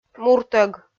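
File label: "муртӧг", Komi, female; kpv audio dict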